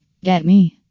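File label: "get me", female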